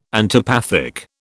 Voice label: "antipathic", British